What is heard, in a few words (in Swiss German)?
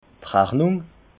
"rachnung", alsace